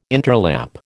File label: "interlap", American